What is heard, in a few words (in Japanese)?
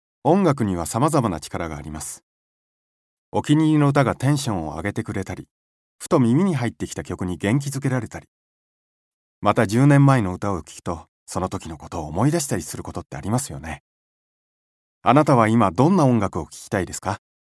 音楽番組ナレ